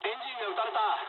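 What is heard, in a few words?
Japanese damaged engine mood low; War Thunder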